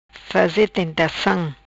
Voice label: "Faze Tentacam", Macanese